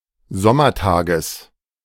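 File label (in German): "Sommertages", German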